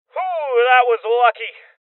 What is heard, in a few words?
Voices Armor Shot Male Young